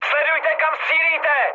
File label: player friendly fire, speech cze, mood high; War Thunder